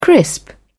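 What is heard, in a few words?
"crisp", British English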